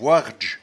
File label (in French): "boirdge", patois jura